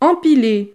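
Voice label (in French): "empile", French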